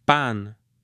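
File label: "pan", Spanish, male; AppLenguaje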